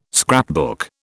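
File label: "scrapbook", British English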